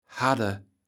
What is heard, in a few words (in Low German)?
"hadde", kaevels platt